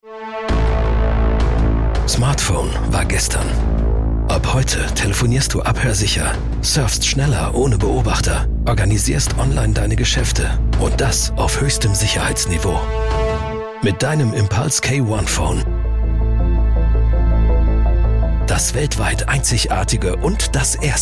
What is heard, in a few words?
promotional tough; TVC; Impuls K One